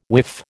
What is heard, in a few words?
"whiff", American